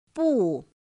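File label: "bù", Mandarin Chinese pinyin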